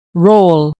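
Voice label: "roll", English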